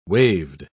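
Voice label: "waved", British English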